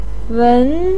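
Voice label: "weng" second tone, Mandarin Chinese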